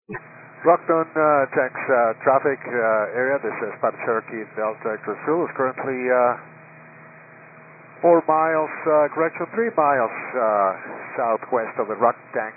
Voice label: 122.925 MHz; scanner radio